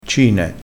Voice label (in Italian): "cine", crespinese